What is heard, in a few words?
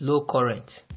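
"low current", Nigerian Pidgin, male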